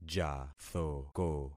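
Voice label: "jathoko", concatenated syllables; Affixing experiment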